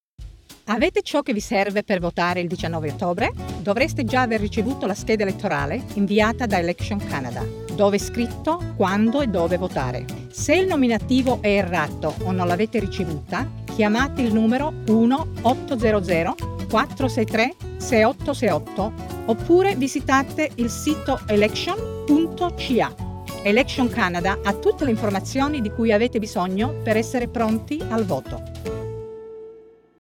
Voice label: italian

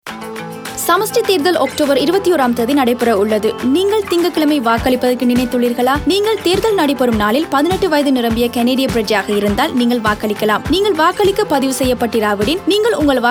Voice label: election day Tamil, radio advert

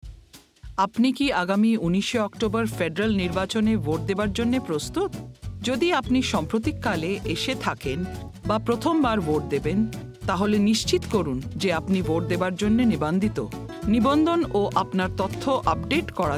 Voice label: bengali; REGISTRATION CANADA

